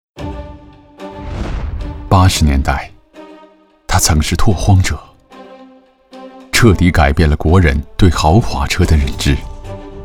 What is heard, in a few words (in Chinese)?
男国 宣传片 企业 浑厚; 奥迪